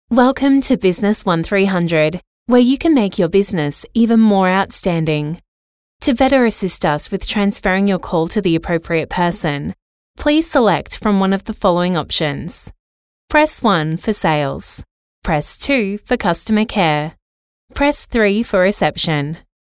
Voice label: Professional